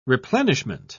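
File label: "replenishment", British English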